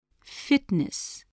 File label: "fitness", spelling